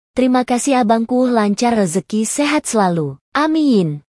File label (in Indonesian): terimakasih abangkuhh indo girl